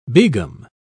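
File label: "begum", en, uk British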